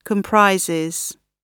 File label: "comprises", gb